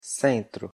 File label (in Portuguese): pronunciation pt "centro"